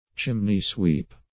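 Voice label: "chimney-sweep", English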